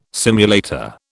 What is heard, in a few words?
"simulator", British